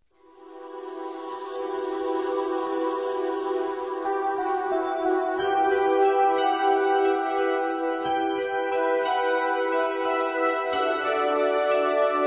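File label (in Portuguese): Parab. Madrinha Batizado, masculino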